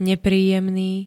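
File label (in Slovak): "nepríjemný", slovenské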